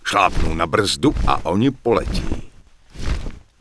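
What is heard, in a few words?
Human Male error invalid attack target, Czech; wowpreklad; czwow; MaleErrorMessages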